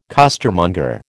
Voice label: "costermonger", American